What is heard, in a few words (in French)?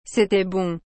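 "C'était bon", French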